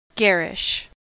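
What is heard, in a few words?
"garish", American English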